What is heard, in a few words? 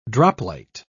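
"droplight", British English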